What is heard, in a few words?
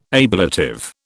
"ablative", British